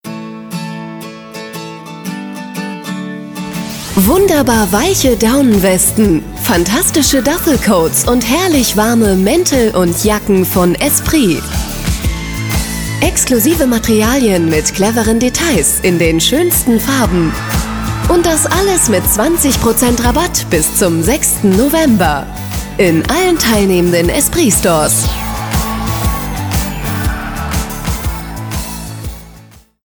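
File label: spot German; esprit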